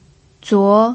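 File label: "zuo2", Mandarin Chinese pinyin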